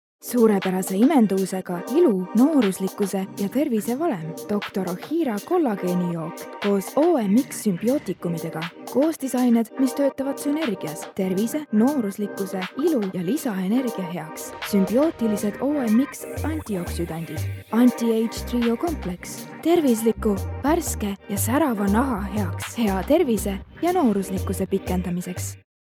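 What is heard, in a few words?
Estonian, female; estonian voice over talent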